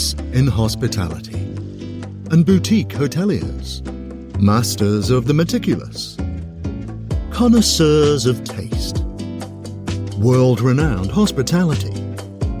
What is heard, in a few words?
voiceover quality airline ad